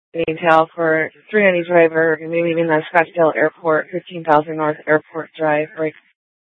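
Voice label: Police Department North Dispatch, scanner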